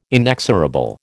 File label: "inexorable", American